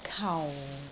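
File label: "kau4", Cantonese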